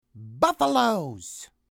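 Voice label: "buffalos", English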